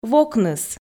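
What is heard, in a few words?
"вокныс", Komi, female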